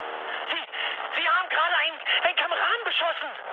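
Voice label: German player killed ally mood high, speech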